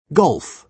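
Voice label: "golf", British English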